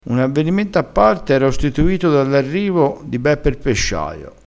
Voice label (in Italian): "pesciaio", crespinese